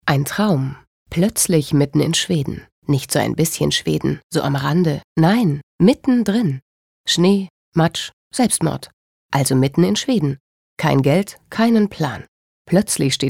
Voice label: Schauspiel Neutral

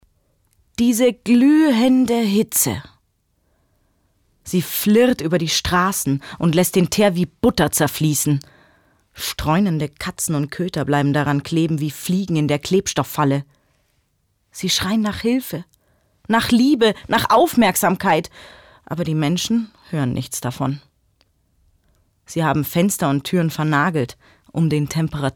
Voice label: Schauspiel Neutral

